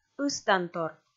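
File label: "ыстантор", Komi, female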